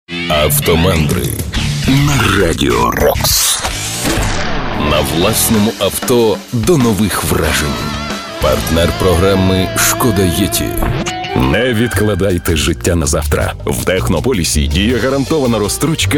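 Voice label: russian male commercial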